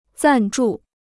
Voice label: "赞助", Chinese

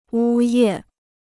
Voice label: "呜咽", Chinese

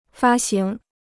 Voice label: "发行", Chinese

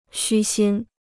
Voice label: "虚心", Chinese

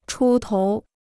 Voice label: "出头", Chinese

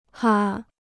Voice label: "哈", Chinese

